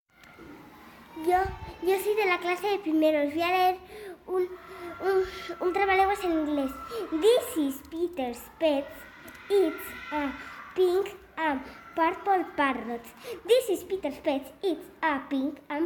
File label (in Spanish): Trabalenguas ingles